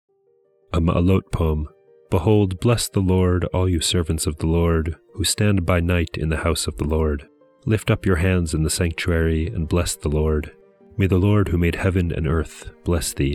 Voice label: poetry and prayer psalm english; Meir Soloveichik